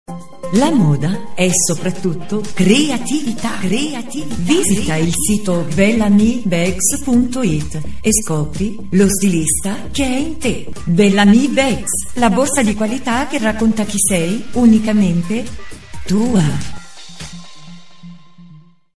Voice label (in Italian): lounge donna; voci